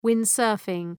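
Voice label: "windsurfing", English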